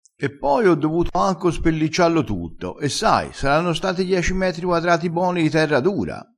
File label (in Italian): "spelliccia", crespinese